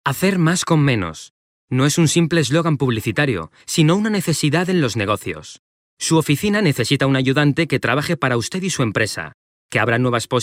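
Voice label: Spanish Spain narration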